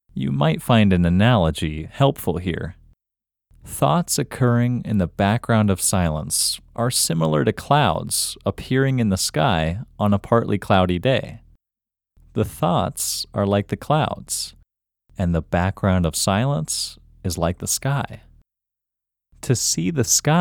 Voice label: Locate IN Male